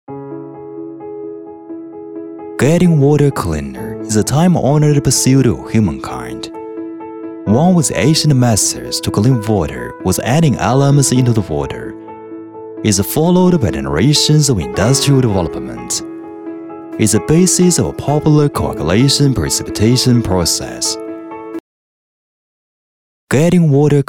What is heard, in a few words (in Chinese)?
男 英语企业宣传片 大气品质; 矾花视境